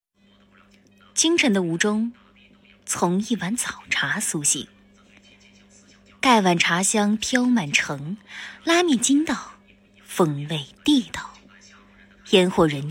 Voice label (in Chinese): 早茶纪录片; 吴忠